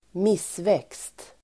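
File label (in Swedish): "missväxt", Swedish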